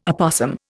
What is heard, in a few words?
"opossum", Indian